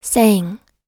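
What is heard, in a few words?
"sang", American English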